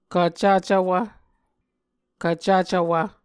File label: "kvcvcvwv", Muscogee